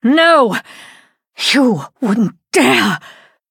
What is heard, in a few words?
Female Blood Elf; Victory Pre Explosion